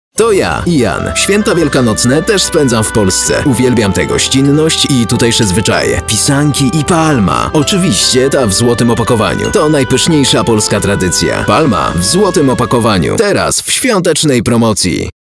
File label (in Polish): reklama